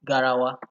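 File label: "garawa", Nigerian Pidgin, male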